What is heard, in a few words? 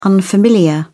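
"unfamiliar", English, uk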